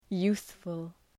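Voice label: "youthful", English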